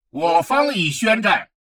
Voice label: advisor notification player declare war, chinese; Stellaris